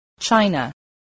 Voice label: "china", English, voice

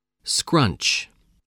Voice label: "scrunch", English

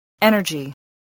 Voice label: "energy", spelling

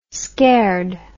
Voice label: "scared", English

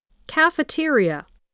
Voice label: "cafeteria", spelling

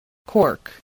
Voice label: "cork", spelling

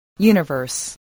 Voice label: "universe", English spelling voice

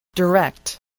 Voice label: "direct", english spelling

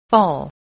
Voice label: "fall", voice

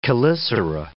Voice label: "chelicera", English

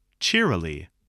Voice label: "cheerily", English, voice

